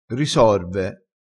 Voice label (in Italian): "risorve", crespinese